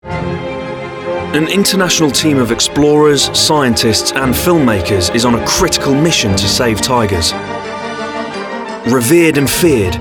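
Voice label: narration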